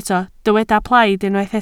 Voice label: "plaid", Welsh